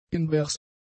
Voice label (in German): "invers", german; adjectives